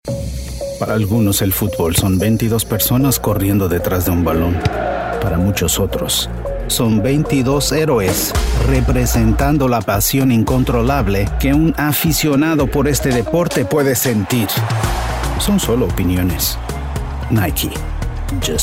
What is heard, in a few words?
spanish commercial; Portugal